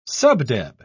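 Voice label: "subdeb", British English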